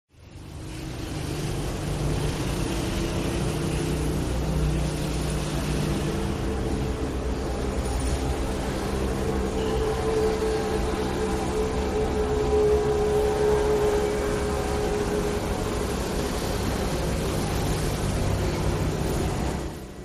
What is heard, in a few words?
very noisesey hoy